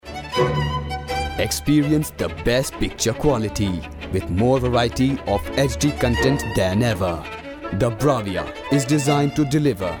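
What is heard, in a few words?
TVC english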